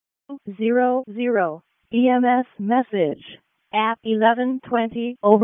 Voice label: EMS ALERT, p25; wake